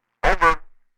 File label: Walkie talkie conversation "over"